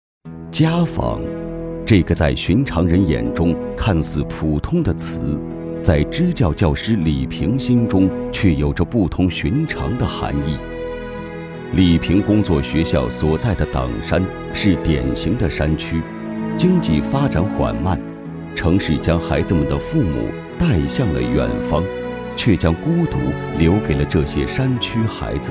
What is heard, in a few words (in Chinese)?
男 人物介绍 支教教师